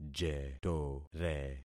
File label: "jetore", concat syllables; Affixing experiment